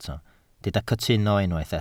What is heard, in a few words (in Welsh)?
"cytuno", Welsh